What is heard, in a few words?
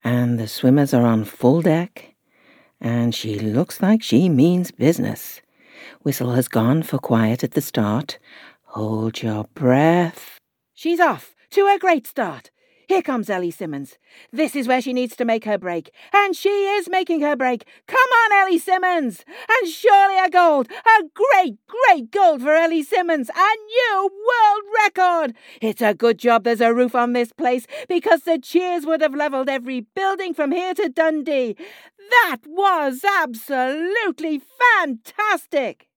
Swimming Commentator